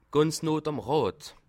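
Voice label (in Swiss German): "nohd", Bas Rhin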